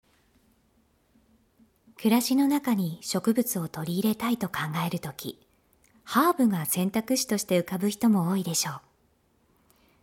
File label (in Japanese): 女性サンプル（whisper）